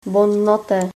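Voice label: goodnight, Lingua Franca Nova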